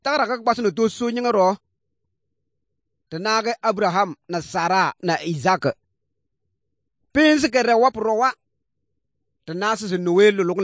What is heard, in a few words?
good news, kabiye bible